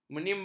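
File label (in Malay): "menimba", Malay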